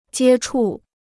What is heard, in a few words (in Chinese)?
"接触", Chinese